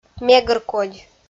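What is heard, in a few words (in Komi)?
"мегыр кодь", Komi-Zyrian, female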